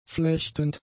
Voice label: "flechtend", German; adjectives